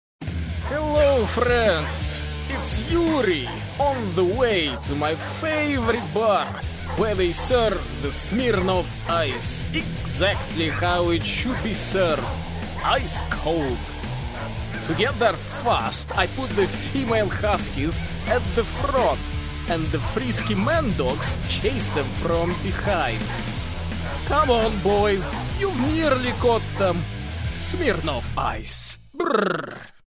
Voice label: Russian English M Commercial, accent of russia